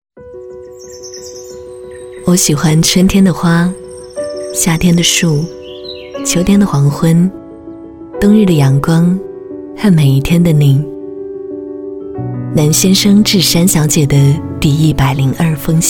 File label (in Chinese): 女 亲和讲述; 新田城